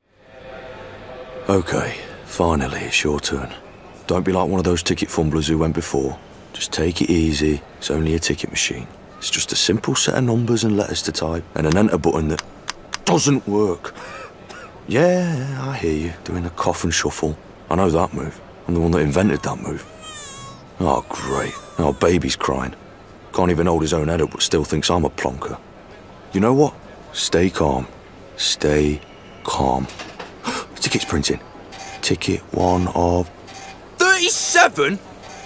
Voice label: COMMERCIAL; Trainline

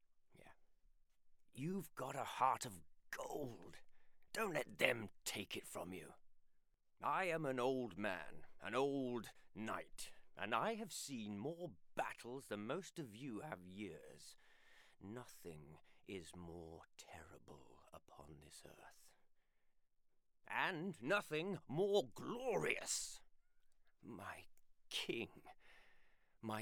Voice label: RP Elder - Gaming; RP Elder - Game Demo

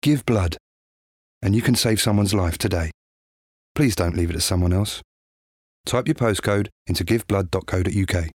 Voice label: Soft, Reassuring, Informative; Give Blood

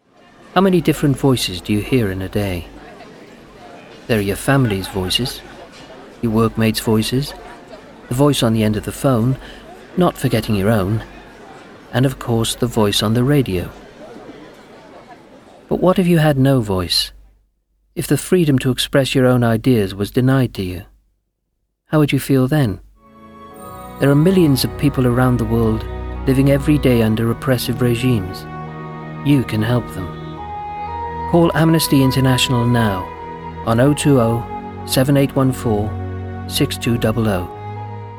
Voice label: Calm, Natural, Reassuring; Amnesty

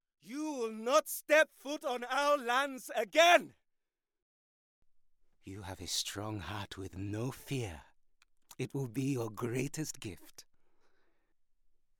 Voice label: African Gaming

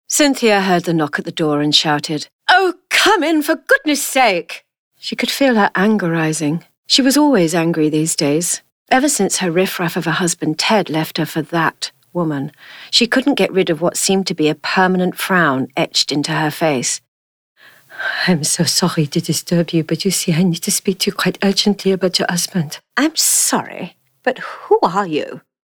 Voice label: VO Audiobook

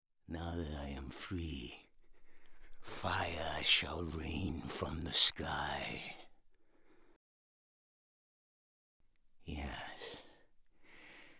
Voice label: Character Gaming

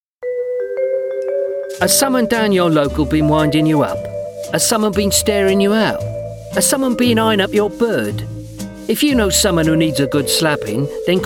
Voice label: Cockney, Blokey, Easy going; Bovver Boys

